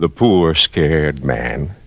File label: poor scared man